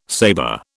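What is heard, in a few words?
"saber", British English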